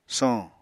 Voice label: "soñ", Apatani